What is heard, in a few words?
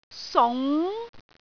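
"sung2", Cantonese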